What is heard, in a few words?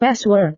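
"password", Indonesian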